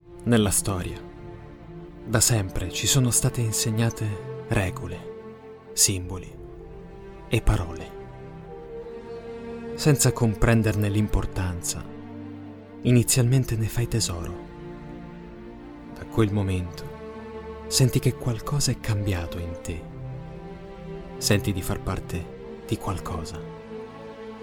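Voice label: Italian, male, Emotional Life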